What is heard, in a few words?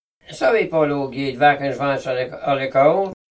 learnt English at school